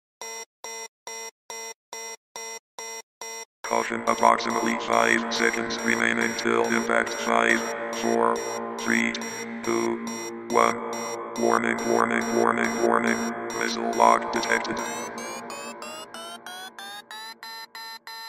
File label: Robotic Voice Sound Effect